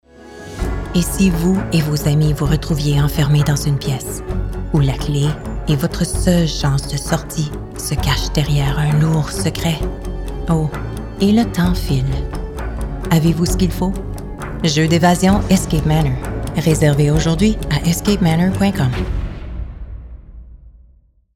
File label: Commercial French, Canadian French; Escape Manor